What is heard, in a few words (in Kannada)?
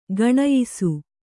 "ಗುಣಿಯಿಸು", Kannada